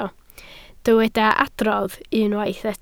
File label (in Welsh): "adrodd", Welsh